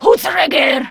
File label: Player Spotted, Grineer Female; Warframe; Battle Barks